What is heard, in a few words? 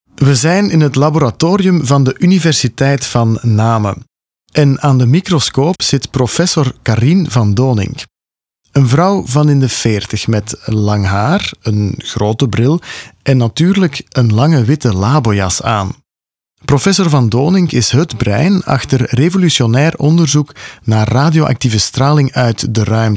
podcast